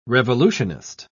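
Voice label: "revolutionist", British English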